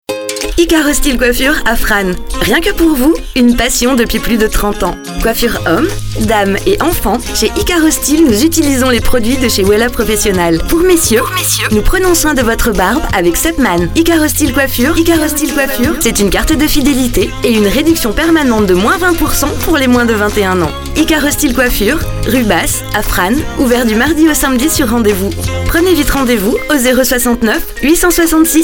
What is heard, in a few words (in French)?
Voix Femme, voix off